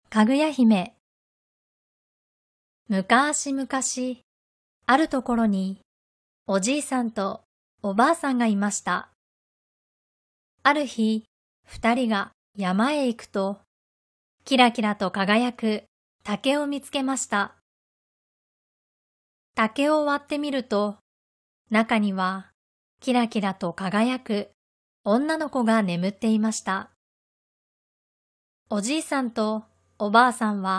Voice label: 外籍日语女 叙述

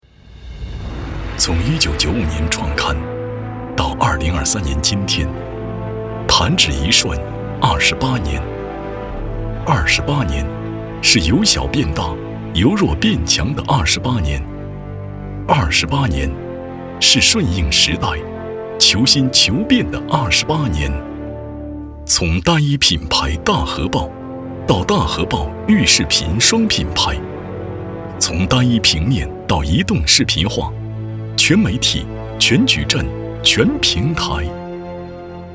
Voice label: 男, 走心情感类; 大河报

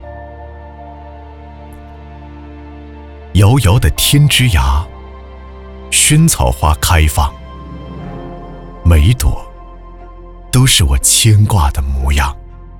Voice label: 男 专题 温情舒缓; 萱草

